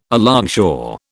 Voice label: "alongshore", British